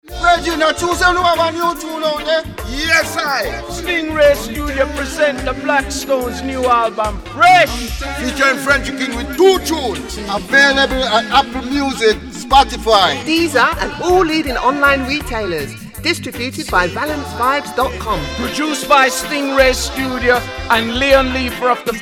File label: advert